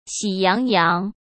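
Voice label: "喜洋洋", Mandarin Chinese; chinese flashcards; hsk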